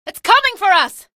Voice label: alert gunship, female; episodic; episode 1; Source engine